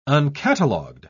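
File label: "uncatalogued", British English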